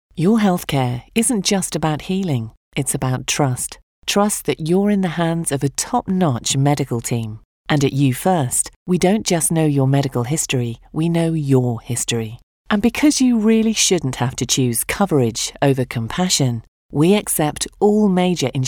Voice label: Sincere